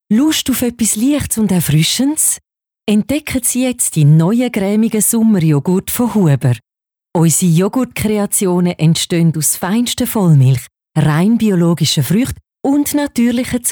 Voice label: Promotion frisch Züritütsch

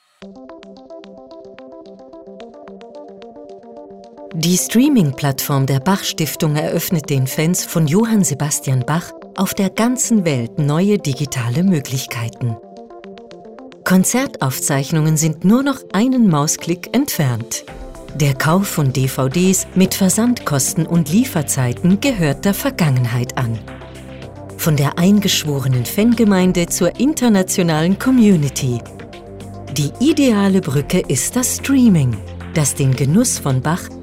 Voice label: trailer DE; Swiss TXT; Bach Stiftung

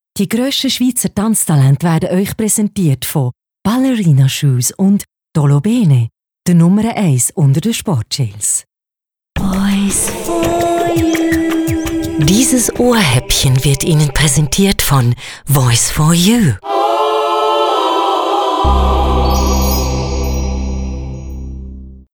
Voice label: Promotion Bärndütsch

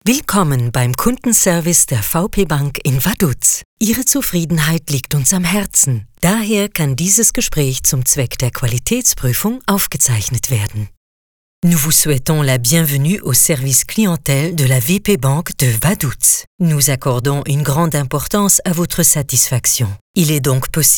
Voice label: Ansage Kundendienst; VPBank

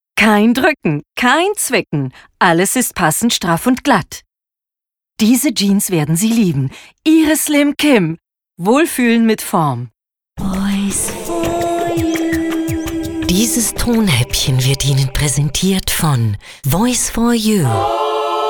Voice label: Werbung Problem Lösung

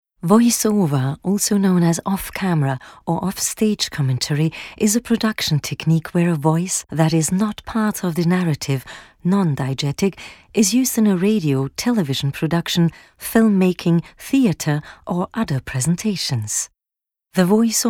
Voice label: Kommentar VO EN

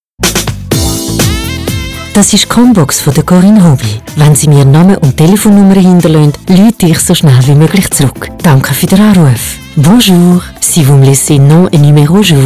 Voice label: Mailbox Ansage D F EN frisch

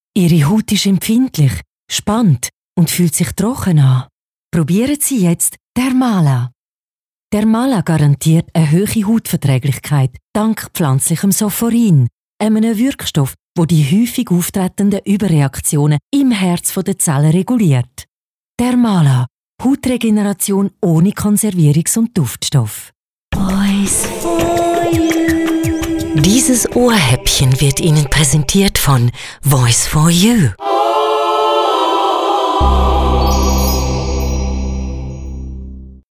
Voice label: Promotion neutral Züritütsch

